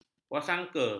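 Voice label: "wasangka", Malay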